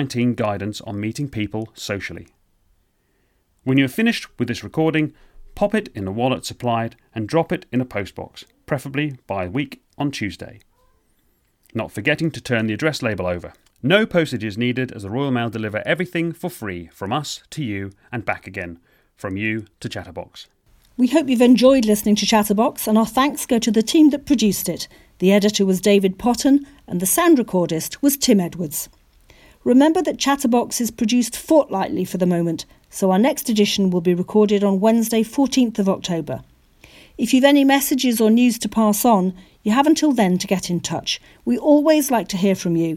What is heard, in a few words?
Closing Announcement